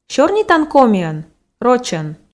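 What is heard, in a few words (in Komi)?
сёрнитан комиӧн рочӧн, Komi, female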